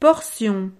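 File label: "portion", French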